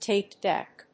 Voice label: tape deck